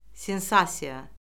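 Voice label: "sensasiya", Azerbaijani; Orfoqrafiya Lüğəti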